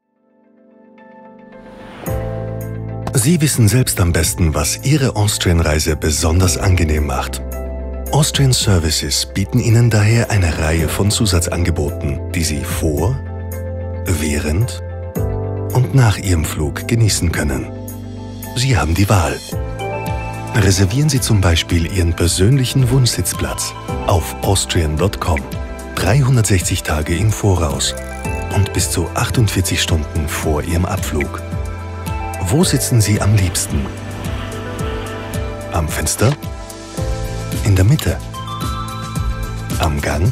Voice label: spot; austria